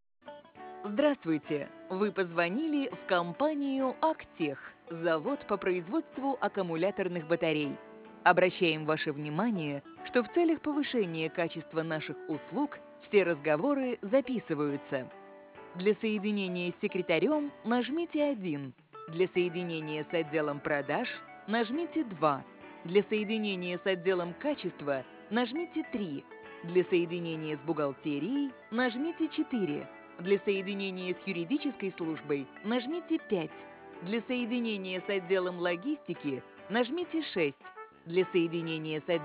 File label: IVR; АК тех